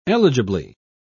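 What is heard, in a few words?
"eligibly", British English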